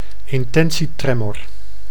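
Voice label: "intentietremor", Dutch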